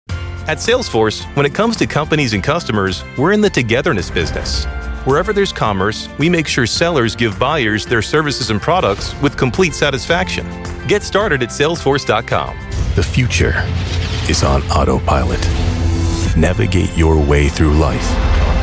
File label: Commercial